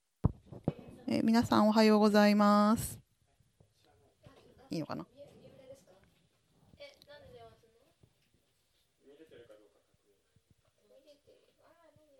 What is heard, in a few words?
announcement; sunday message 2020